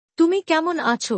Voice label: How are you? - Bangla; Basic Greetings and Courtesy

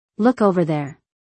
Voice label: "Look over there", English; Basic Greetings and Courtesy

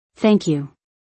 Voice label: "Thank you", English; Basic Greetings and Courtesy

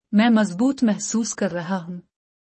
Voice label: I am feeling strong, urdu; Expressing Feelings

